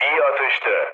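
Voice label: Turkish player killed enemy, mood low, speech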